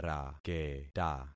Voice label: "raketa", concat syllables; Affixing experiment